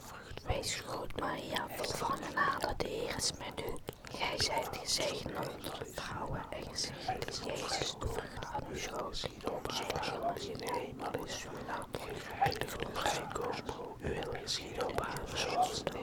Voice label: Man Whisper Praying Dutch